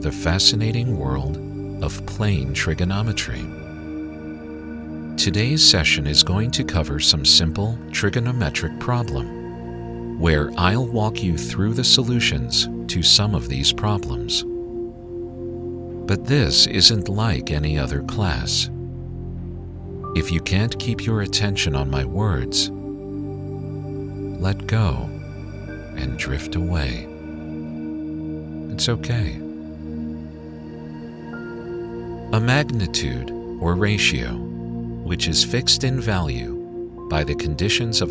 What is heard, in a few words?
Sleep Relaxation